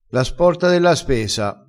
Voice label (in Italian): "sporta", crespinese